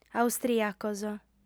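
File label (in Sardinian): "austrìacos", female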